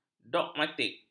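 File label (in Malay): "dogmatik", Malay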